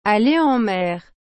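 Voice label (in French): "aller en mer", French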